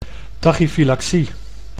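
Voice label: "tachyfylaxie", Dutch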